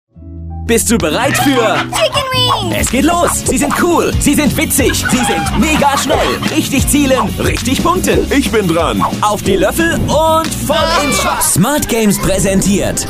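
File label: German male TV commercial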